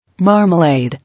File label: "Marmalade", English